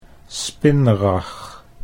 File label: "spinrag", Dutch